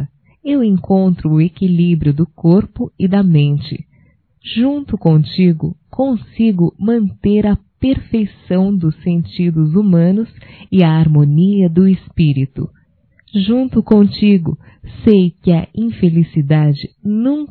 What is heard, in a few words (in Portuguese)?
AMO VOCÊ - F; Românticas